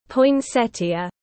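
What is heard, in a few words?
"Poinsettia", English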